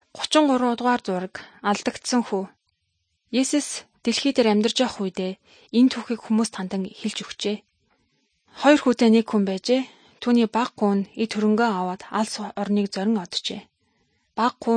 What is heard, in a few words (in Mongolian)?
good news mongolian